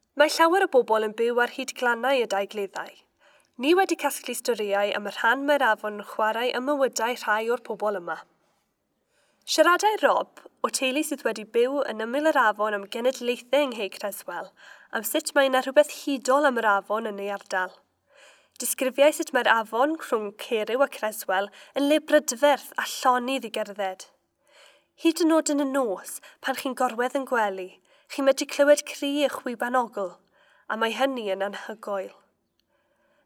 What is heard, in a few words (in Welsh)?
Cymraeg; Inspirational Place; Heddwch a Thawelwch; afonydd Cleddau; Sir Benf